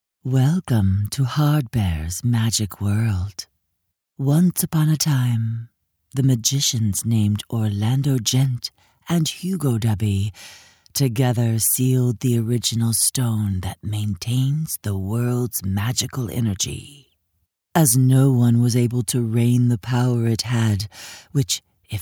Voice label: 外籍女英 外语 美式英语 成熟; HardBear

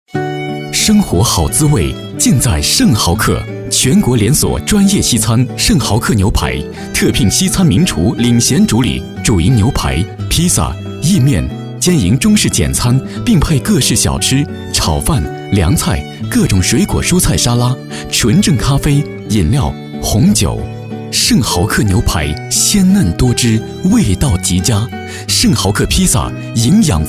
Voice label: 广告, 品牌形象, 餐厅广告, 活力

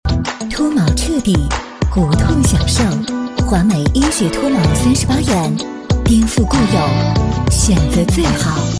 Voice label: 广告 医院医药 整形 时尚; 重庆华美整形

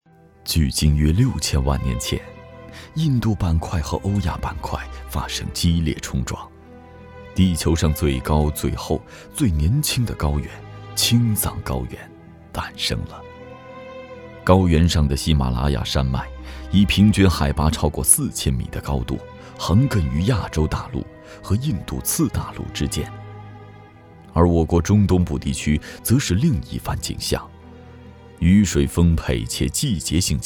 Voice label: 男国 纪录片 人文历史 神秘悠远纪录片

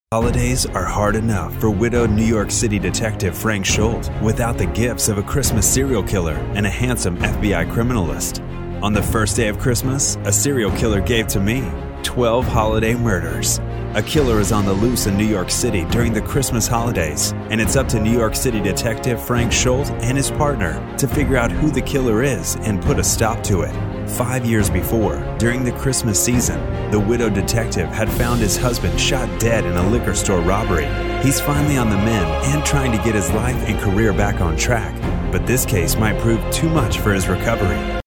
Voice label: 外籍男英 外语 美式英语 大气浑厚 大气

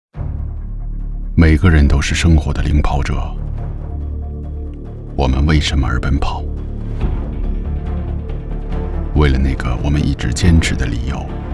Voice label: 男国 广告 品牌形象 矿泉水领跑者独白 低沉; 怡宝矿泉水领跑者独白

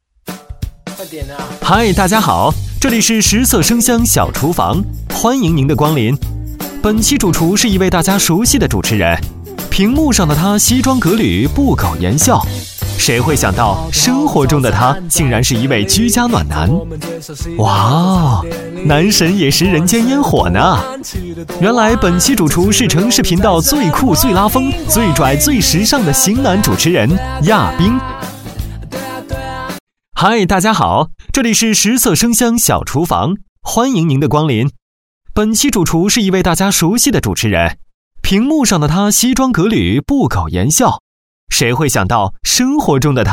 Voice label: 男 多媒体 语音导游; 食色生香小厨房

